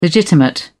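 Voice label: "legitimate", British English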